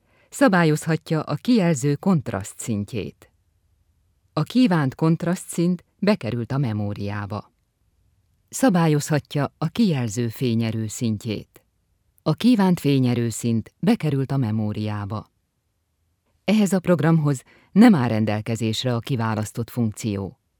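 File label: Hungarian, female, corporate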